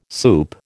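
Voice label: "soup", American English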